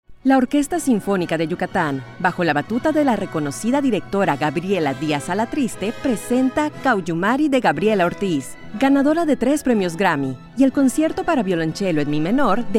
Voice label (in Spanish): Spot de radio